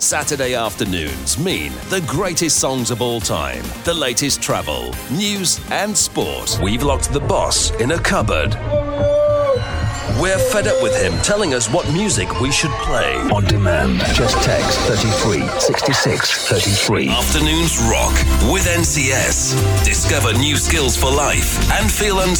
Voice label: Radio Jingles & Promos